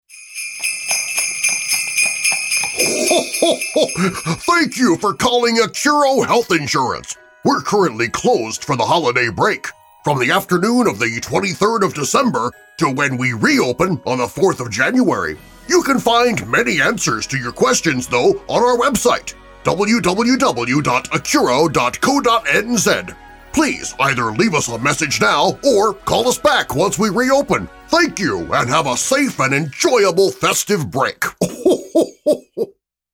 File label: Xmas Voicemail; Accuro